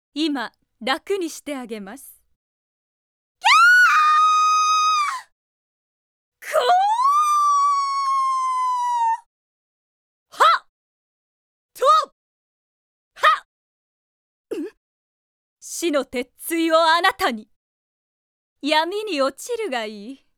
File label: character voice; D-XHIRD; VENUS